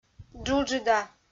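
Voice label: "джуджыда", Komi, female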